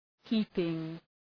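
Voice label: "heaping", English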